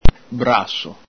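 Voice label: "braso", Veneto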